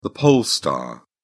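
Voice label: "pole star", British English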